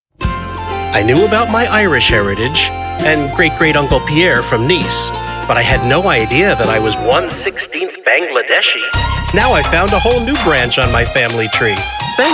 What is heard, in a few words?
COMMERCIAL